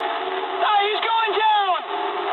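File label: aircraft destroyed mood low, speech English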